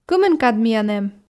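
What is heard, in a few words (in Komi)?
кымын кад миян эм, Komi, female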